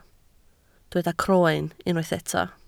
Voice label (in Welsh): "croen", Welsh